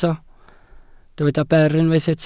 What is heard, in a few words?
"ber", Welsh